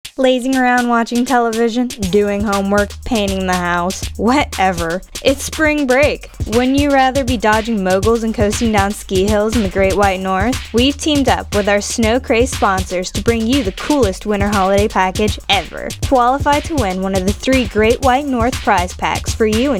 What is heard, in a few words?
Ad